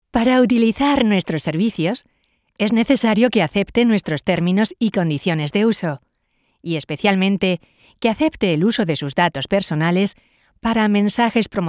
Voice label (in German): telefon, spanisch